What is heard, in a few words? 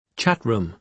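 "chat room", British English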